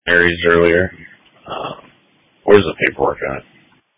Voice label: Police Dispatch